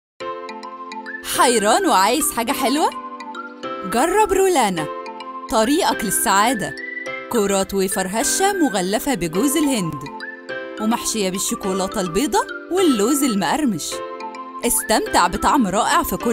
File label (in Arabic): اعلان تجريبي لشيكولاته; رولانا